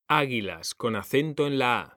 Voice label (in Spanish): "ÁGUILAS", H; mekanta